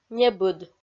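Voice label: "небыд", Komi-Zyrian, female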